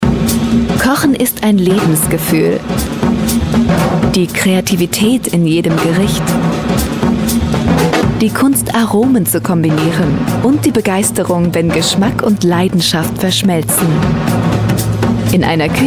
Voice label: german voice over female commercial